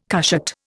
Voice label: "cushat", Indian